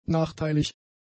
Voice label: "nachteilig", German; adjectives